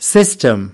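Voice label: "system", English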